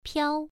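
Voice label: "piao", tone 1, pronunciation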